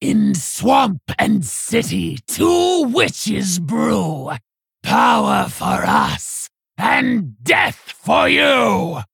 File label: Female Orc; Hagatha